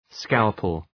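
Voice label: "scalpel", English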